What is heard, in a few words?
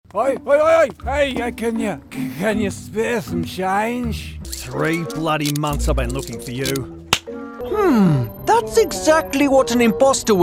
Character; EM Voices